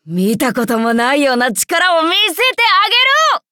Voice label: upgrade, ja; cookie run